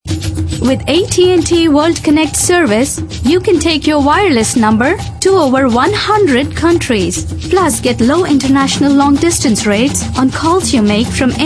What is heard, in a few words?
female, other foreign